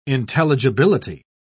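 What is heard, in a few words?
"intelligibility", British English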